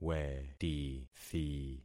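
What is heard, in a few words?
"wetithi", concatenated syllables; Affixing experiment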